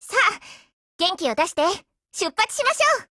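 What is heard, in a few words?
formation, Japanese; 人物：少女前线:Saiga 308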